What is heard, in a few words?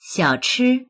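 "xiao3chi1", Mandarin Chinese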